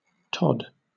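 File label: "tod", British English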